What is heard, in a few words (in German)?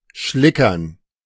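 "schlickern", German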